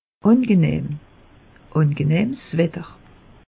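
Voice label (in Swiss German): "angenahm", Alsatian; meteo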